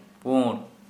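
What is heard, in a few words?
Santali male white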